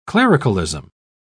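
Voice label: "clericalism", British English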